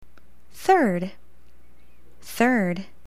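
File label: "third", English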